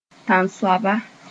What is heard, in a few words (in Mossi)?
"tãnsoaba", moore